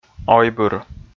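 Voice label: "ойбыр", Komi, male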